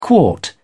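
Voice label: "quart", English, British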